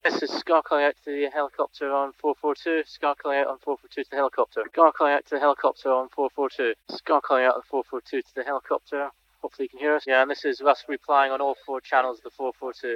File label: BBC calling out to the helicopter